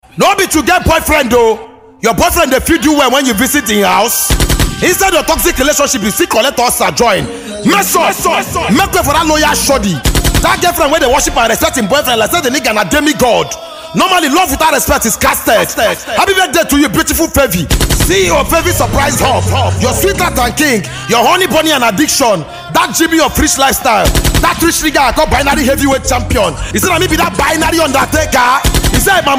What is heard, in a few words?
hypeman